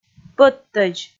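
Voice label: "пӧттӧдз", Komi, female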